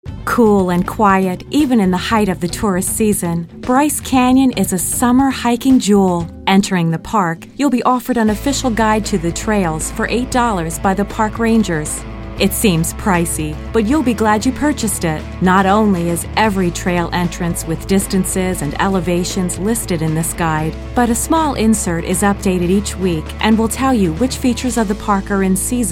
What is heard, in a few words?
narrative